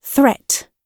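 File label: "threat", British English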